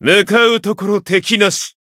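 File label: win, Japanese; majuou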